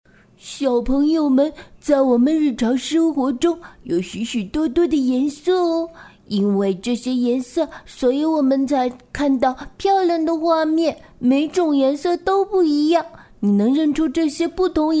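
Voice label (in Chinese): 女 角色 动画角色配音; 大耳朵图图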